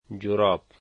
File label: socks, Dari